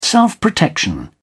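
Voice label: "self protection", British English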